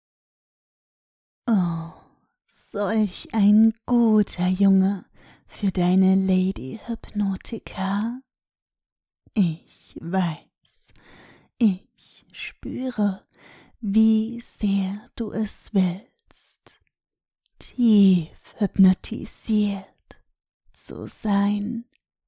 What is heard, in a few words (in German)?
Tiefe Trance; ist die Belohnung